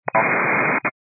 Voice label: 122.925 MHz; scanner radio